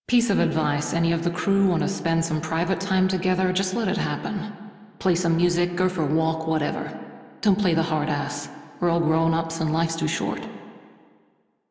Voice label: Crew Idle, Female; Railjack Crew; Steel Meridian

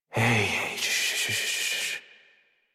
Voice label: Horse Calm Adult; Warframe; Duviri

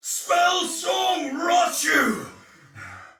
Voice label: Attack Heavy Soldier Male; Dialog; Barks; Battle; Sinecure

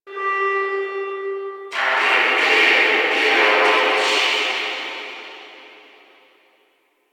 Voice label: Guild Announcer; Warframe

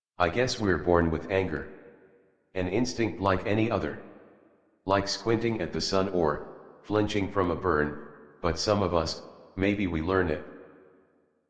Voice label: Anger Adult, en, Dialog; Warframe; Duviri Paradox Quest

